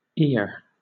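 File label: "ere", British English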